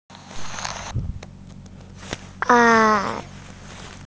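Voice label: "er4", Mandarin Chinese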